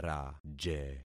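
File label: "raje", concatenated syllables; Affixing experiment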